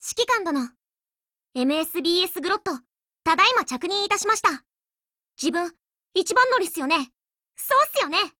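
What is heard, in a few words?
gain, Japanese; 人物：少女前线:MSBS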